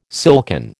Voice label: "silken", American